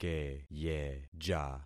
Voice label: "keyeja", concat syllables; Affixing experiment

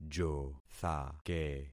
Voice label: "jothake", concat syllables; Affixing experiment

